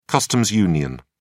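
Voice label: "customs union", British English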